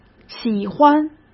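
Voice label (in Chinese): "喜欢", Mandarin Chinese; chinese flashcards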